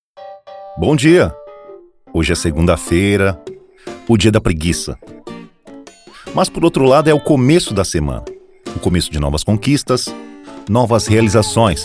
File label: portuguese brazilian podcasts